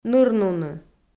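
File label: "нырнуны", Komi, female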